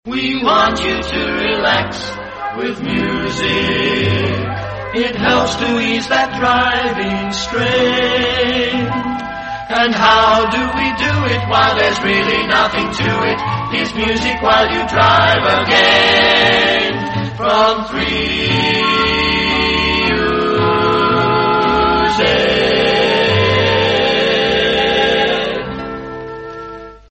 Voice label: australian otr promos and idents; 3uz drive

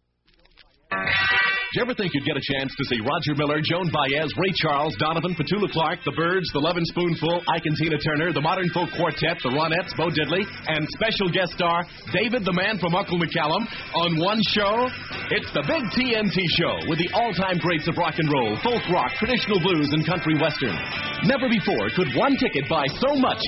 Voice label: promos; big t n t show; psychedelic promos and radio spots

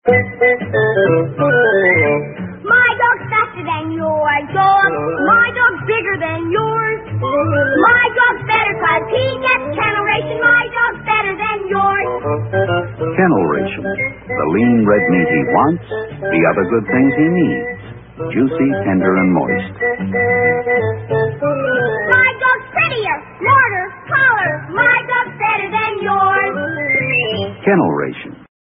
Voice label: commercials, dog food; ken l ration

